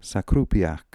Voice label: "Sakroopiak", pronounciations; Fourth Way; Beelzebub